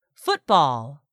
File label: "football", English; 3000 words